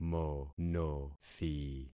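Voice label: "monothi", concatenated syllables; Affixing experiment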